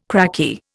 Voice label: "cracky", Indian

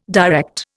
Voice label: "direct", Indian

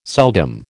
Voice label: "seldom", American

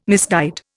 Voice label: "misguide", Indian

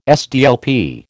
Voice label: "SDLP", American

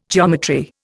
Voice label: "geometry", Indian

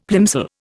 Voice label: "plimsoll", Indian; Dictionary

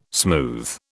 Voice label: "smooth", British

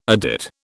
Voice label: "adit", British

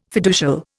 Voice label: "fiducial", Indian

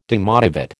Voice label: "demotivate", American

